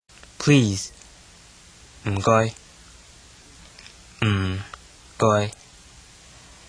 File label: Cantonese Please